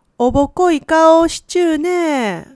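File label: "obokoi", Japanese dialect, woman; hogen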